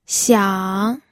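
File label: "xiang3", Mandarin Chinese pinyin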